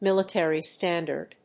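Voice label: "Military standard", English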